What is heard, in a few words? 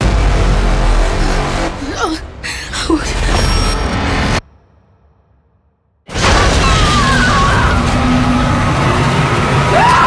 OFF Trailer; Kinotrailer; NOPE